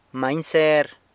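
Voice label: "manyser", bee, San Lucas Quiaviní Zapotec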